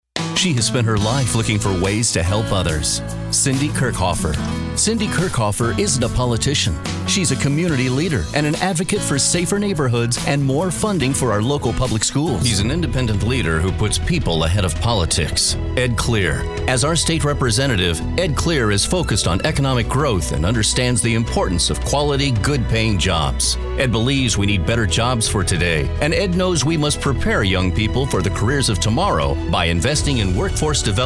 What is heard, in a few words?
Male Voice Over Talent Political Commercial